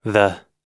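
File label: "the", US English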